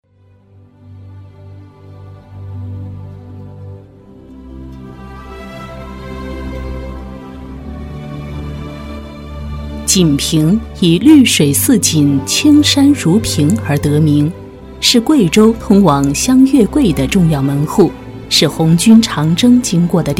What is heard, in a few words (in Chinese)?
专题 政府 城市专题 大气